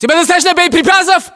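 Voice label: status check, battlechatter, Russian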